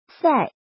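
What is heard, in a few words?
"sai4" Mandarin Chinese, falling tone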